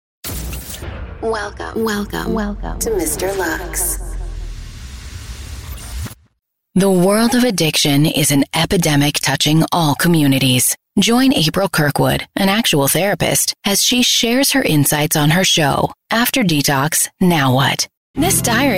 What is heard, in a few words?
Podcast Radio Imaging